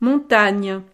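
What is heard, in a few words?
"montagne", French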